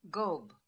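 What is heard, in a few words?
"Gob", pronunciations; Fourth Way; Beelzebub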